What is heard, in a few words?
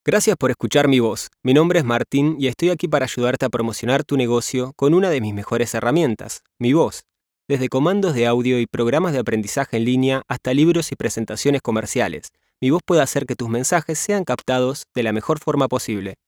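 Latin American Spanish general; GoLocalise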